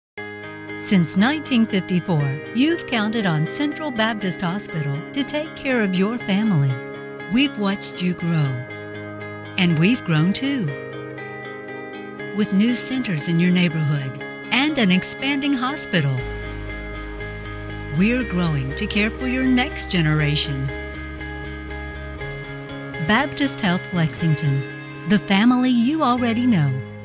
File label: Professional Voice Talent; Baptist Health